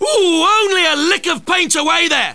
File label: ooh only a lick of paint away there, English commentary; swos